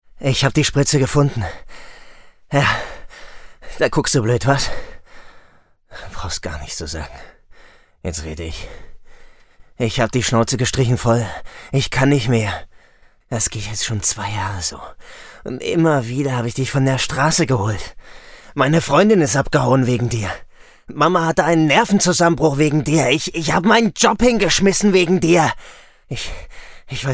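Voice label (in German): Schauspiel Aggressiv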